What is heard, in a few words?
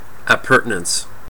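"appurtenance", US English; pronunciations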